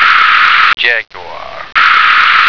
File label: "jaguar", American